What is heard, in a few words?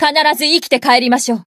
encount fear, jp; herena